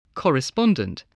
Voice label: "correspondent", voice spelling

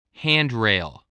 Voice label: "handrail", spelling

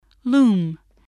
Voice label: "loom", spelling

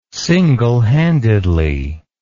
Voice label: "singlehandedly", words

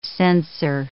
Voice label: "censor", English

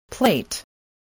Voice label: "plate", English

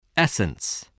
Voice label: "essence", voice, english

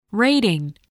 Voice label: "rating", english

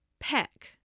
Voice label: "peck", English, voice

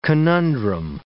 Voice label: "conundrum", English

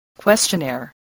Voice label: "questionnaire", english

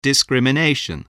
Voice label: "discrimination", english spelling

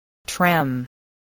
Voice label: "tram", spelling

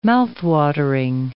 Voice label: "mouthwatering", English

